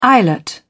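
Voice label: "eyelet", British English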